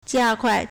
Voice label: "加快 jiākuài", Chinese; verb; to accelerate